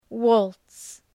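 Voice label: "waltz", English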